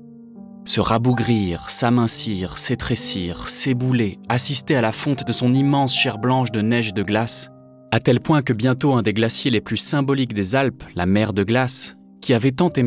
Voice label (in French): Narration docu montagne FR